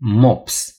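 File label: "pug", Romanian; gcompris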